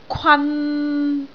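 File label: "kwan3", Cantonese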